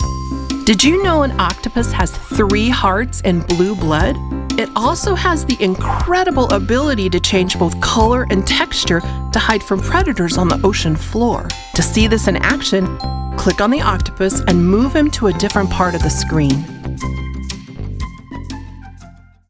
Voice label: Children's Educational Bright, Curious

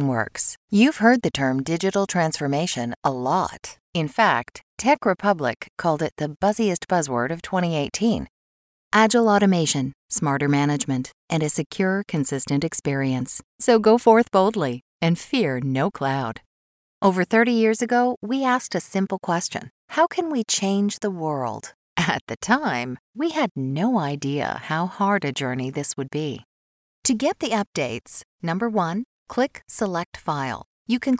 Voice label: Business & Corporate Training

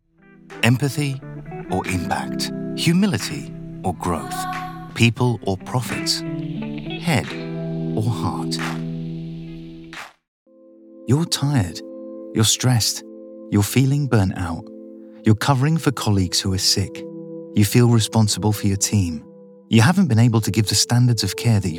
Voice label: corporate

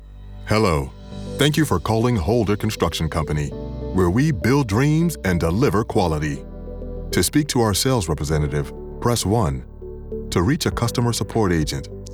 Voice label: IVR

